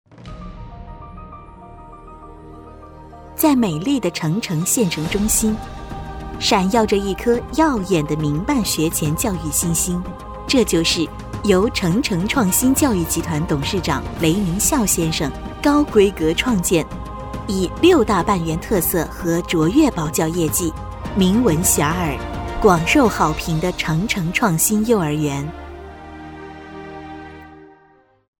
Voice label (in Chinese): 女 中文专题 幼儿园